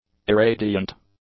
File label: "irradiant", english; adjectives